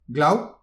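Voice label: "glav", Breton; petit precis de prononciation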